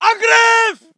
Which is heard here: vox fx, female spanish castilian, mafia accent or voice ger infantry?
voice ger infantry